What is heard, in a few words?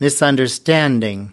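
"misunderstanding", us, male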